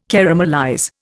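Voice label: "caramelize", Indian English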